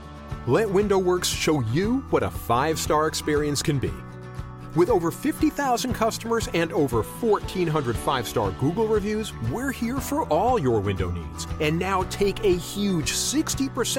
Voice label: Social Commercial; friendly, authoritative, intelligent, steady; Window Works